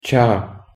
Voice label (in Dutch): "چا", Soranî; thee-in-andere-talen